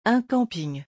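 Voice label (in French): "un camping", French; vocabulaire; le lexique des vacances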